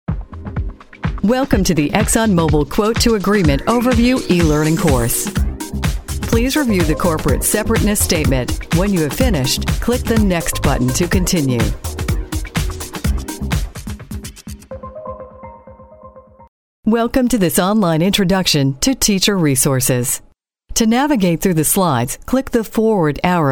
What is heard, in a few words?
explainer video, commercial voiceover